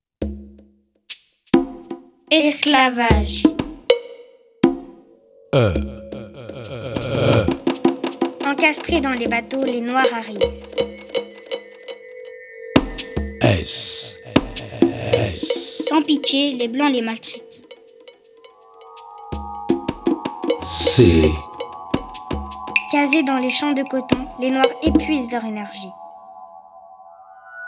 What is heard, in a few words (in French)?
Binaural; Acrostiche Esclavage